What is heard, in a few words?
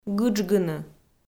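"гыджгыны", Komi, female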